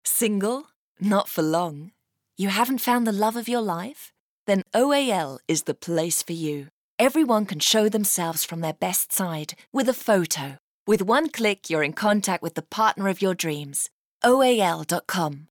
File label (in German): uk englisch, speaker